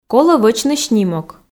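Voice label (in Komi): колӧ вӧчны снимок, Komi, female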